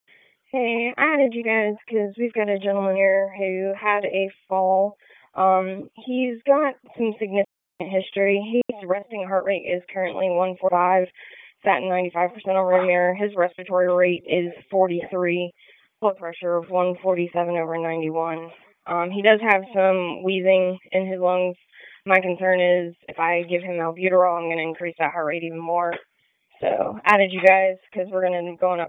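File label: Public Safety Tactical, p25; wake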